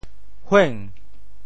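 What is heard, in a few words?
"hueng2", Teochew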